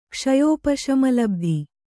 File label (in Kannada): "ಕ್ಷಯೋಪಶಮಲಬ್ಧಿ", Kannada